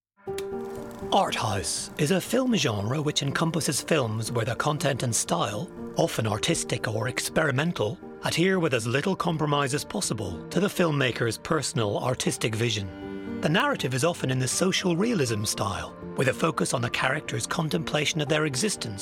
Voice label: male English Irish Northern Irish accent narration compilation